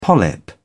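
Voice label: "polyp", British English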